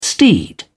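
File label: "steed", British English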